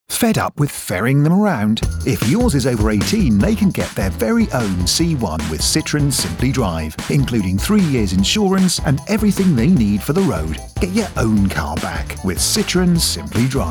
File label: male english radio commercial; citroen